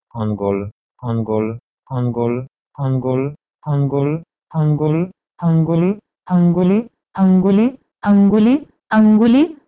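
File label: Proto-Indo-European h2engul to Sanskrit anguli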